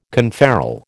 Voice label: "conferral", American